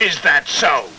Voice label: is that so male, voice, question; questions

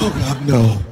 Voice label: "oh god no", chap

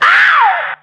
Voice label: ow male

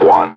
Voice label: "one" robot; numbers and letters

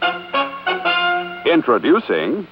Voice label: introducing with fanfare; Voice

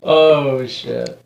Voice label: oh shit, Voice expletives

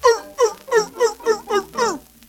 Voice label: erh erh erh, gibbrish

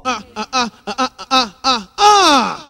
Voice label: "uh uhuh uh uhuh uh UH", Voice; ooh ahh; uh um

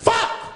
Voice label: "fuck", expletives

